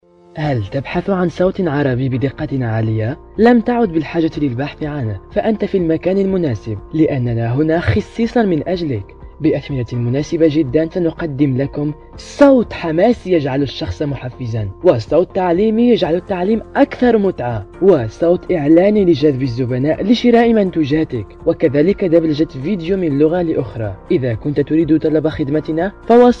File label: AR male, arabic